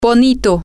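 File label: pretty cute, Spanish, female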